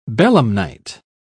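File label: "belemnite", British English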